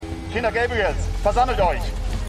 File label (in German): Mann, Stimmproben; The 100